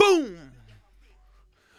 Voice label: Boom, Vox